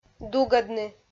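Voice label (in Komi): "дугӧдны", Komi, female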